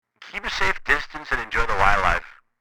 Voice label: Walkie talkie conversation short sentence